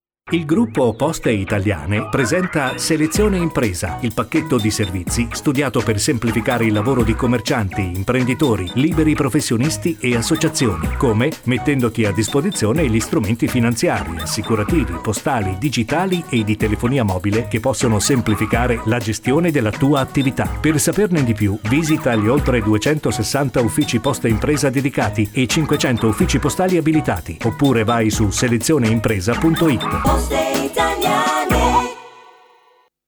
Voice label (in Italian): spot radio; Poste Italiane